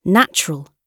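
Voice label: "natural" British English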